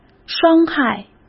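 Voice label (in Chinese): "伤害", Mandarin Chinese; chinese flashcards; hsk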